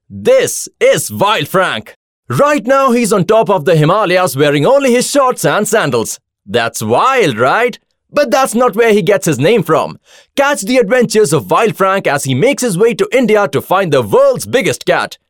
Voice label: English, male voice; Wild Frank